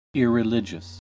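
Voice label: "irreligious", US English; pronunciations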